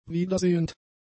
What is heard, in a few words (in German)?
"wiedersehend", German; adjectives